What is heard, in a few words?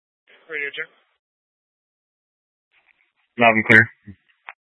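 Incident Tac 1, Scanner audio recording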